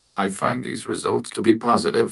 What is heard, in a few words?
happy, speech; fertig classic games; cybro